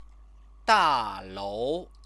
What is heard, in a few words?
"dalou", Chinese